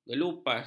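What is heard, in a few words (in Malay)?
"gelupas", Malay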